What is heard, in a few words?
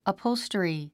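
"upholstery", English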